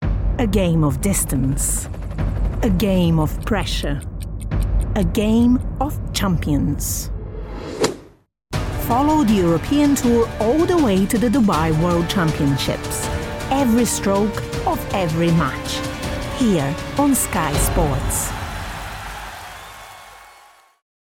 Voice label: Sports Promo British